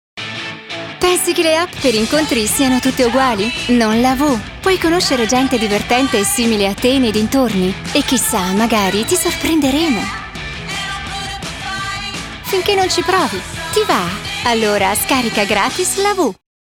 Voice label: italienisch werbung; sprecher

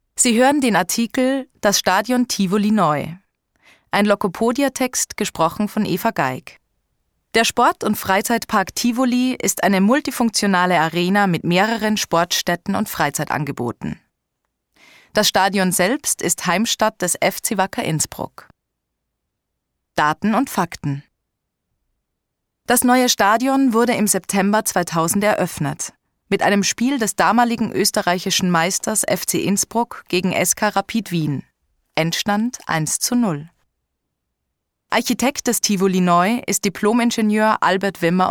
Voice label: at audioguide, oesterreichisch; sprecher

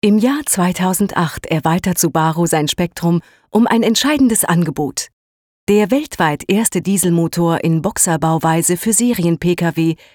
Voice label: deutsch frauen elearning; sprecher

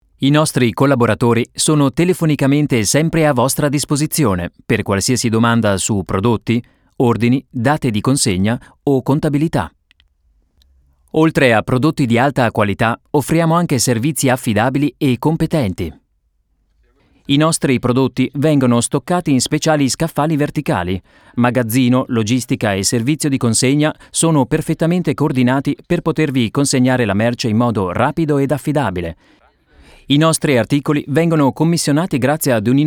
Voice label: italienisch image; sprecher

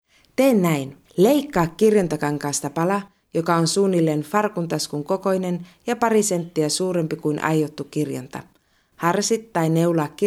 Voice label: audioguide, finnisch, sprecher

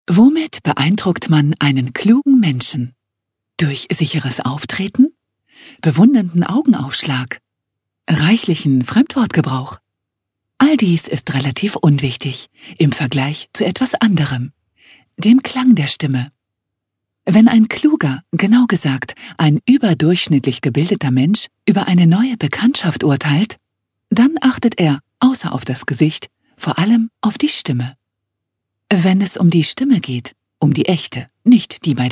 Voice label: sachtext, deutsch frauen; sprecher

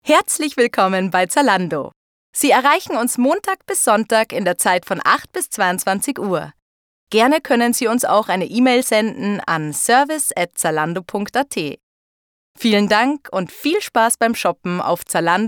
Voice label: telefon, oesterreichisch, sprecher

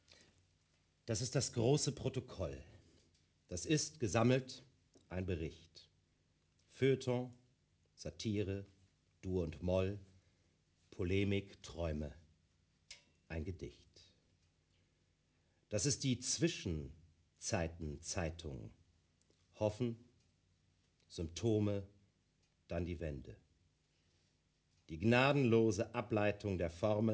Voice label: Lesung; sprecher

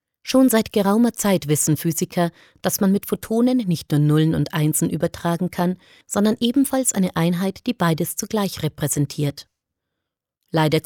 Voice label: Elearning

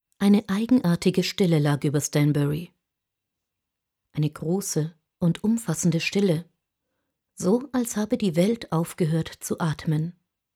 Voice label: Hoerbuch

